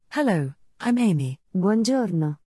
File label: multilingual multiple speakers